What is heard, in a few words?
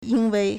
"yīnwèi", Chinese; because; owing to; on account of; 因为 (因為)